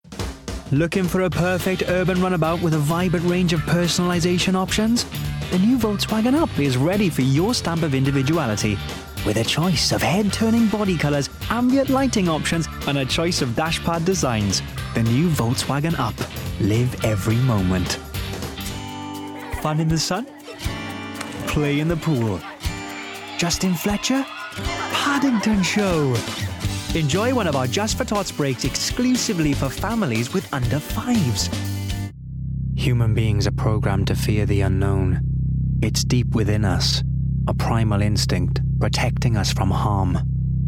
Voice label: Commercials Montage